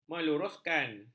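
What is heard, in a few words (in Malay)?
"meluruskan", Malay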